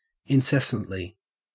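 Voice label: "incessantly", Australian English; pronunciations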